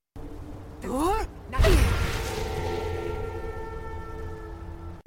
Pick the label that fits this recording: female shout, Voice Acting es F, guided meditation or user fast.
female shout